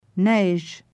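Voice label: "Neige", French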